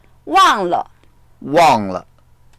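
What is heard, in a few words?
"wang4le", Mandarin Chinese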